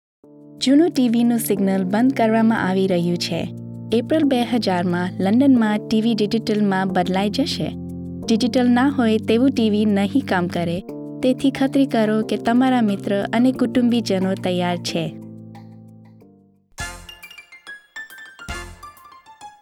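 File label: Gujarati General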